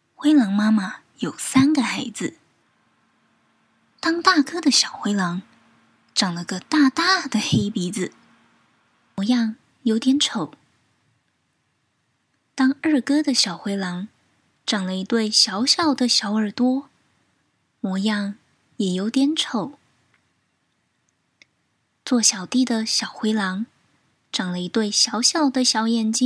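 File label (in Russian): голоса, Chinese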